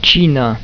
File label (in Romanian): "cina", romanian